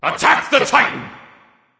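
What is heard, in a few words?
attack, male; smite; anhur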